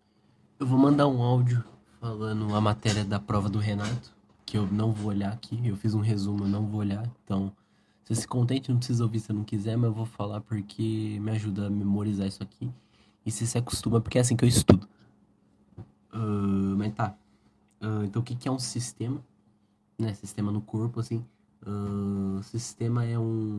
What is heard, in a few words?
potuguese speech